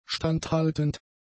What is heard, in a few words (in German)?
"standhaltend", German; adjectives